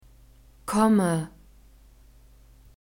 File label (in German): "komme", German